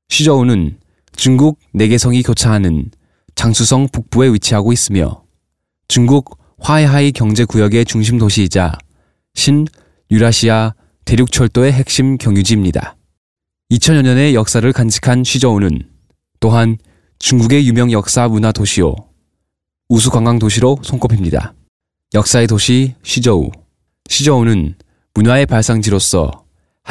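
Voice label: korean male narration; voice demos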